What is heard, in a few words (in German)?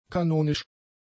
"kanonisch", German; adjectives